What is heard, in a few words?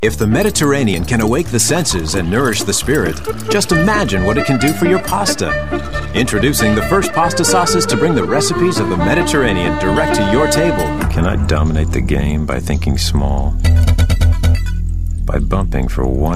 commercial Montage